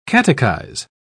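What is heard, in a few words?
"catechise", British English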